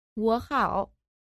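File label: "wohao", Mandarin Chinese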